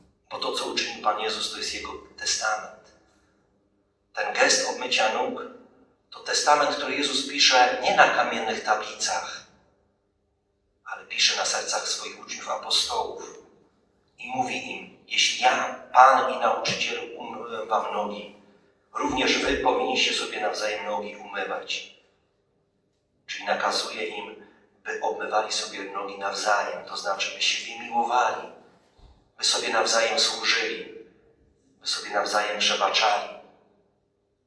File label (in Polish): Kazanie; Wielki Czwartek